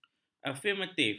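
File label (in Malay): "afirmatif", Malay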